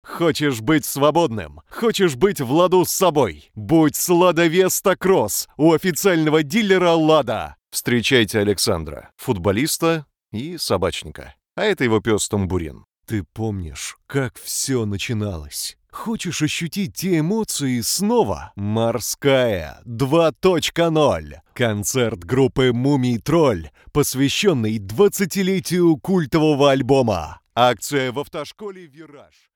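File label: Russian Russia male sales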